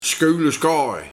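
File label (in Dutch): "Skuileschaai", dialect; Lommer - Schaduw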